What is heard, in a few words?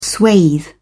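"swathe", British English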